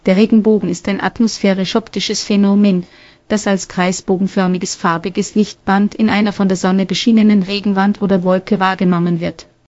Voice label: speaker, de_DE; piper voices